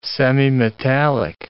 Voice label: "semimetallic", English